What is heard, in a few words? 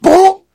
heavy no